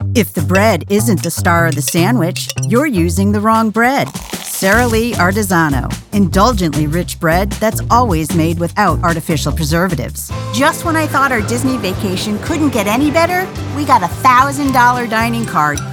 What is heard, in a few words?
commercial